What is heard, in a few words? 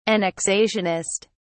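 "annexationist", British English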